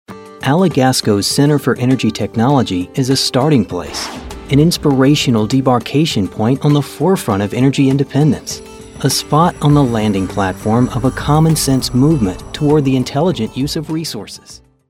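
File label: US English, male, narration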